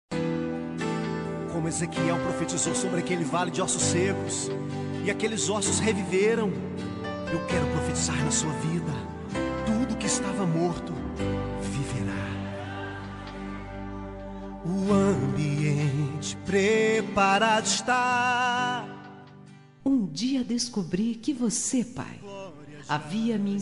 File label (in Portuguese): pai fem gospel